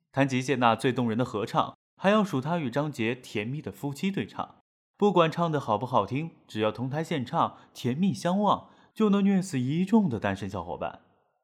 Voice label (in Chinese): 演绎类